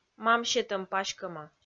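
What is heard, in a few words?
"мам сетӧм паськӧма" Komi, female; kpv audio dict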